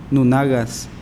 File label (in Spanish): Nawat palabras oreja; pipil